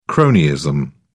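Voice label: "cronyism", British English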